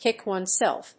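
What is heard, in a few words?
"kick oneself", English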